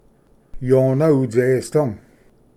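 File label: "yona utsesdo", Cherokee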